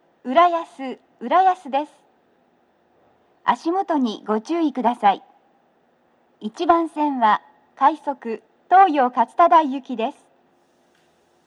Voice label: 到着放送, 女声, 放送は「快速 東葉勝田台行」です。; TOA円型での収録です。; 1番線

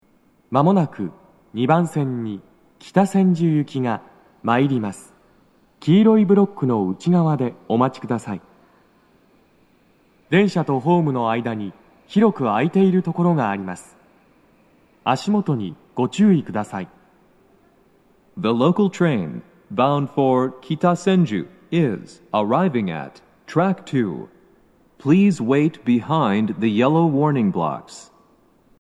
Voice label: 接近放送, 男声, 六本木駅; 2番線 北千住・南栗橋方面; 放送は「北千住行」です。

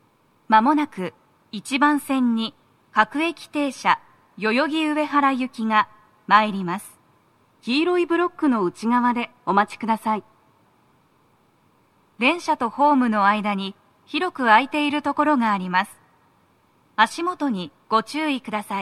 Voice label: 接近放送, 女声; 1番線 代々木上原・唐木田・伊勢原方面 接近放送; 放送は「各駅停車 代々木上原行」です。; tokyometro chiyodaline nogizaka

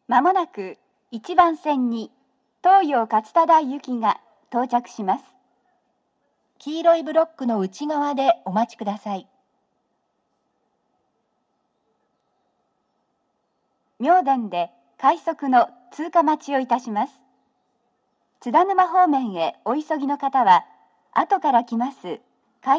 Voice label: 接近放送, 女声, 放送は「各駅停車 東葉勝田台行き」です。妙典で、快速 津田沼行きの通過待ちを致します。; TOA円型での収録です。; 1番線

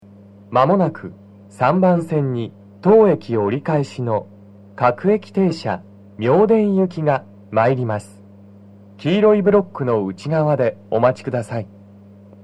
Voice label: 接近放送, 男声; 3番線 西船橋・東葉勝田台・津田沼・三鷹方面; 放送は「各駅停車 妙典行」です。

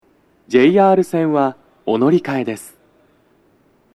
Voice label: 扉閉め放送は、「JR線は、お乗換えです。」; 扉閉め放送の、乗り換え路線パーツを抽出した被り無し音声を載せてみました。; 乗り換え放送; 収録者:こたけ

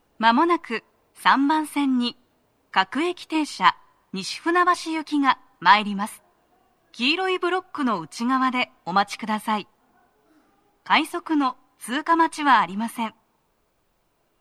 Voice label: 接近放送, 女声, 放送は「各駅停車 西船橋行」です。快速 の通過待ちはありません。; 3番線 西船橋・東葉勝田台・津田沼方面; 東西線

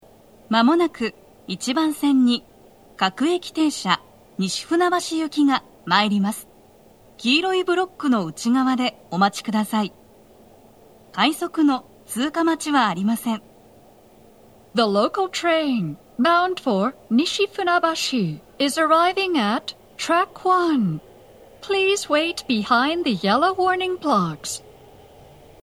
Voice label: 接近放送, 女声, 放送は「各駅停車 西船橋行」です。快速 の通過待ちはありません Panasonic天井型での収録です。; 1番線 西船橋・東葉勝田台・津田沼方面; ホーム上のスピーカーよりも、待合室での収録がお勧めです。; 東西線

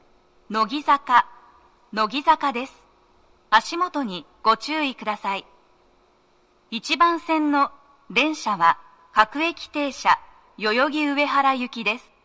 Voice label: 到着放送, 女声; 1番線 代々木上原・唐木田・伊勢原方面 到着放送; 放送は「各駅停車 代々木上原行」です。; tokyometro chiyodaline nogizaka

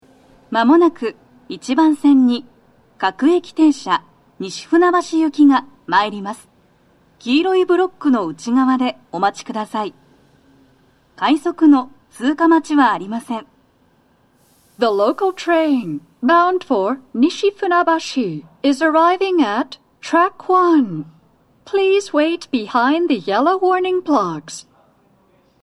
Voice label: sekkin, 接近放送 【女声】; 1番線 西船橋・東葉勝田台・津田沼方面; 放送は「各駅停車 西船橋行」です。快速 の通過待ちはありません; 東西線

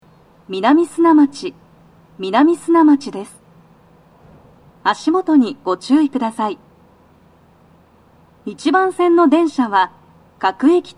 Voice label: toucyaku, 到着放送 【女声】; 1番線 西船橋・東葉勝田台・津田沼方面; 足元注意喚起放送が付帯されており; 放送は「各駅停車 西船橋行」です。

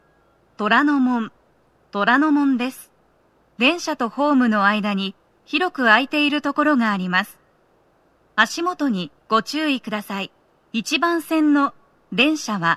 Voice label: 到着放送, 渋谷行, 女声; 1番線 渋谷方面

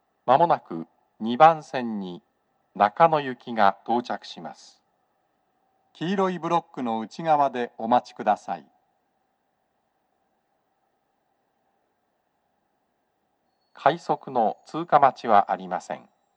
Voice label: 接近放送, 男声, 放送は「各駅停車 中野行き」です。; 2番線 中野・三鷹方面; TOA円型での収録です。

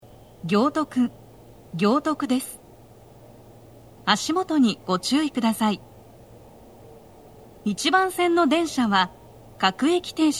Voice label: 到着放送, 女声, 放送は「各駅停車 西船橋行」です。Panasonic天井型での収録です。; 1番線 西船橋・東葉勝田台・津田沼方面; 足元注意喚起放送が付帯されており

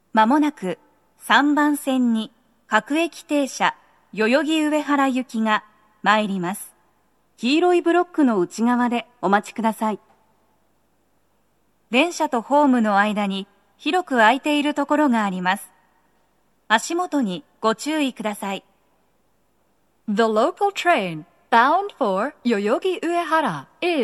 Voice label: 接近放送 女声, 各駅停車 代々木上原行; 3番線 代々木上原・唐木田・伊勢原方面 接近放送; 放送は「各駅停車 代々木上原行」です。; 3番線の鳴動は、かなり遅めで、停車駅案内はありません。; tokyometro chiyodaline hibiya; 千代田線

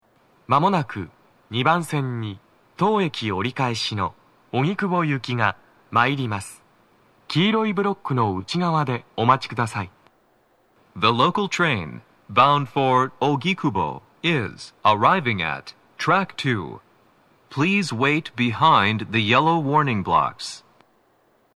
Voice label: 接近放送 男声; 池袋; 2番線 荻窪・方南町方面; 放送は「荻窪行」です。

